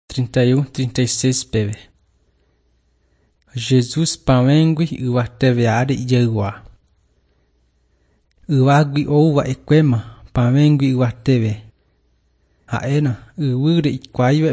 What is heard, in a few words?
john guarani mbya, bible